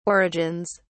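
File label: "origins", British English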